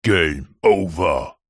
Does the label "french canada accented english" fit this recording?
No